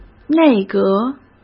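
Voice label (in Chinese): "内阁", Mandarin Chinese; hsk; chinese-flashcards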